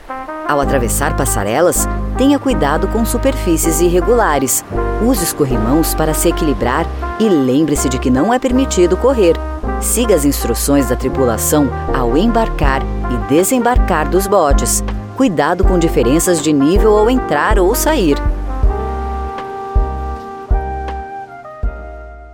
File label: portuguese brazilian others